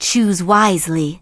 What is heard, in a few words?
Blood Elf Female Standard Vendor